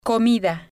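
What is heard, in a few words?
"comida", spanish, female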